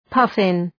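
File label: "puffin", English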